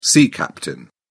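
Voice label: "sea captain", English, British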